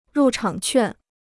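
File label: "入场券", Chinese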